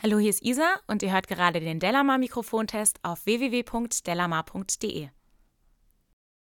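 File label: speech female; akg perception120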